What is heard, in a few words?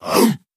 "uhroo", Voice; ooh ahh; uh um